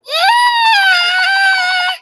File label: being cute